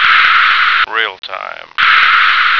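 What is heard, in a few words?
"realtime", American English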